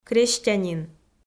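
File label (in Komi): "крестьянин", Komi-Zyrian, female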